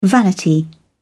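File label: "vanity", British English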